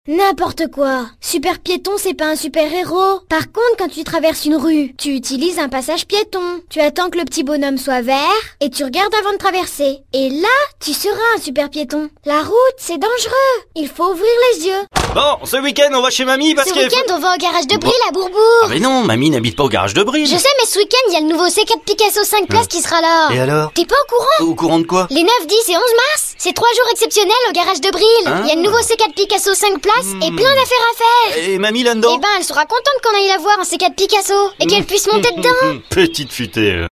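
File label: French, child, character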